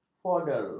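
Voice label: "foder", Malay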